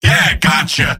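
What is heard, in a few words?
"yes", robot; mvm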